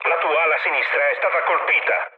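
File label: Italian left wing mood low, speech; War Thunder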